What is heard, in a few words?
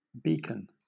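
"beacon", British English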